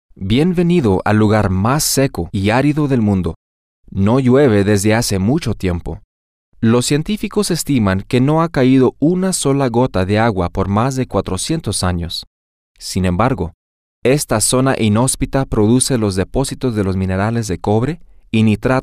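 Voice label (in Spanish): Chile, el desierto de Atacama, Spanish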